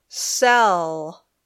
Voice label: "sell", English